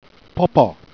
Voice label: "papa", Jèrriais

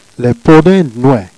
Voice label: "podin d'Noué", Jèrriais

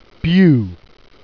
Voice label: "bliu", Jèrriais

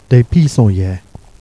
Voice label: "des pissenliets", Jèrriais

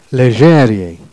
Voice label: "Jèrriais", Jèrriais

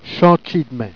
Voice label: "chatchi d'main", Jèrriais

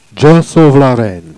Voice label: "Dgieu sauve la reine", Jèrriais

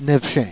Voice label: "nevchin", Jèrriais

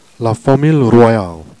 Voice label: "fanmil'ye rouoyale", Jèrriais

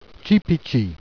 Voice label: "pitchi", Jèrriais

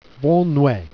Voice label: "Noué", Jèrriais

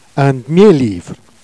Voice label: "d'mié livre", Jèrriais

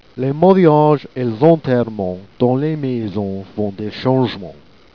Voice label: "les mathiages et l's entèrrements", Jèrriais

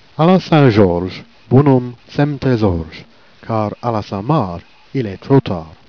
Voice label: "à la St George", Jèrriais

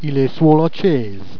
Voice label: "il est souos la tchaise", Jèrriais

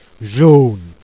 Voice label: "jaune", Jèrriais

